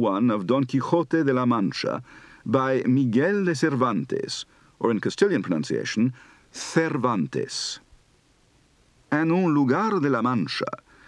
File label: Spanish, Accent